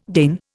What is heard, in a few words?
"deign", Indian